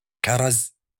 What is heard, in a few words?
cherry in arabic